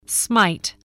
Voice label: "smite", voice, spelling